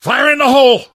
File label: grenade, survivor; voice